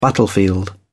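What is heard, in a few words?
"battlefield", British English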